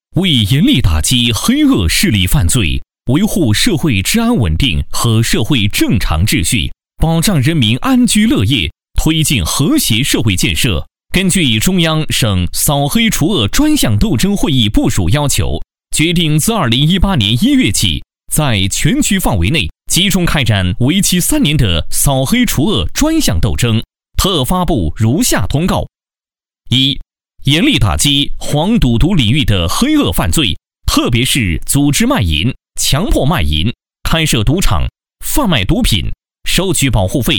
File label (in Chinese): 男国 专题 政府 公安局打黑 稳重; 莱芜市公安局打黑